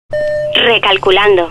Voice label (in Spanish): gps recalculando